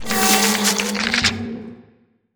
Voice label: portrait synthetic; Stellaris; machineage